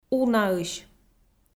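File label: "унаысь", Komi, female; kpv audio dict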